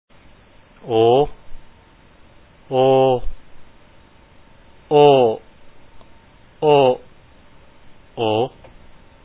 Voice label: o, ໂອ/ ?oo ໂອ່ ໂອ້ ໂອ໊ ໂອ໋, Lao; Lao Intermediate Reading; Reading Exercise

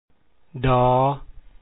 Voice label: d sound, lao alphabet sounds

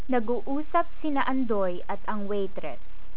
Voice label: dialog, Tagalog

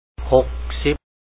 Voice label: 60, Lao; ຫົກສິບ; ho2k-si2p

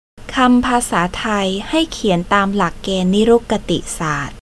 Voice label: Thai Speech; Empires of the Word; a Language History of the World; by Nicholas Ostler, 2005

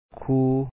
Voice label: "ຄົນ", Lao; kho1n

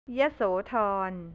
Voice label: "yasotorn", thai; ยโสธร; northeast; แผนที่ประเทศไทย

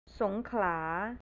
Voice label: "songkla", thai; สงขลา; south; แผนที่ประเทศไทย

